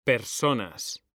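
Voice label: "personas", Spanish, male; mekanta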